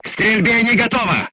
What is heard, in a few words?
voice message reloading, crew tanks russian